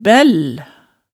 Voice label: "bæll", hemsedalsmaalet tale

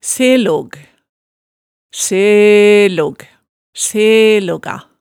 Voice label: "selog", hemsedalsmaalet

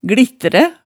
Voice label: "glittræ", hemsedalsmaalet

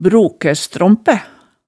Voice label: "brokæstrømpæ", hemsedalsmaalet tale

